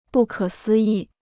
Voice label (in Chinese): "不可思议", Chinese